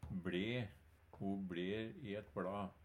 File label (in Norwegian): "bLe", Numedalsmål; Verb